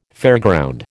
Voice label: "fairground", American